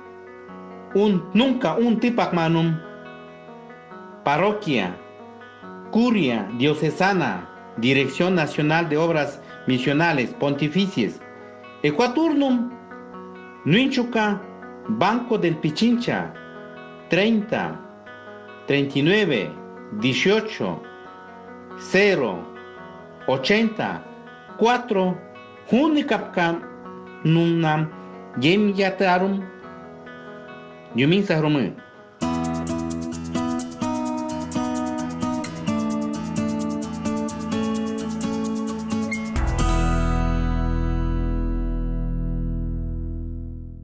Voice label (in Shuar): Domund shuar audio; MDomund 2019